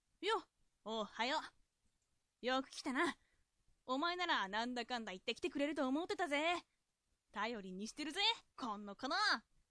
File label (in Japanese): dansei